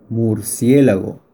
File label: Bat spanish